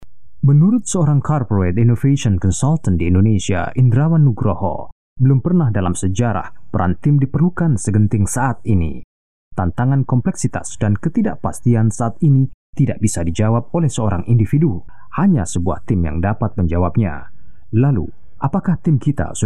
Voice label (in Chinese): 印尼语男 低沉 温柔